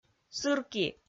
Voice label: "сырки", Komi